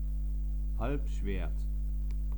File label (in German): "halbschwert", german